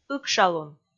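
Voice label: "ыкшалун", Komi, female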